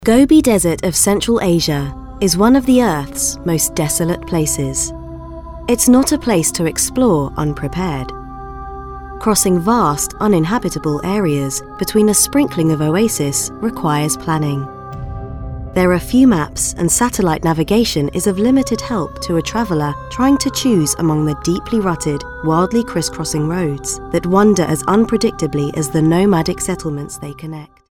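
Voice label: English British, female, narration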